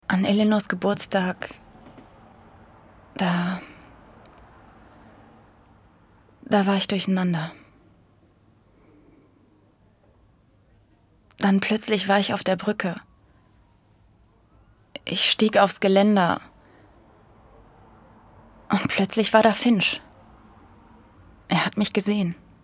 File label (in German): Synchron Geständniss